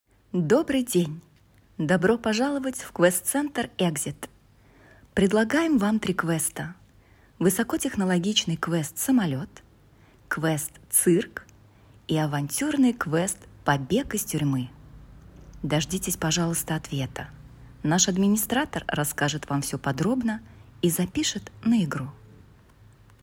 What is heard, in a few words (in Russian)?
Автоответчик